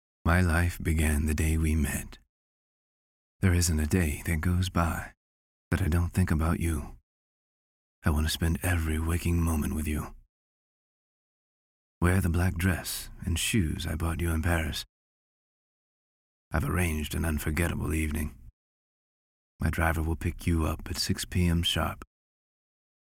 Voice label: NARRATIVE VO SAMPLE